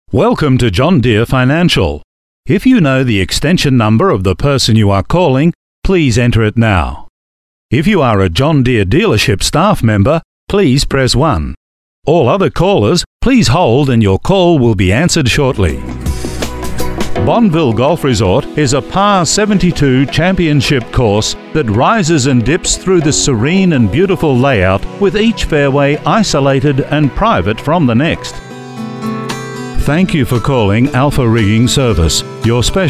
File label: Male Talent